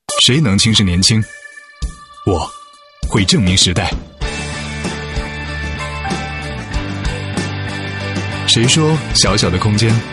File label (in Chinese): 广告 地产, 男国; 微公寓东汇城